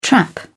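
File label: "trap", British English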